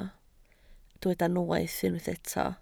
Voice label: "noeth", Welsh